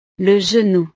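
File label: "le genou", French; partes del cuerpo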